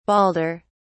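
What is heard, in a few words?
"balder", British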